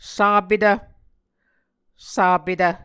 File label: "sapetv", Muscogee